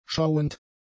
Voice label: "schauend", german; adjectives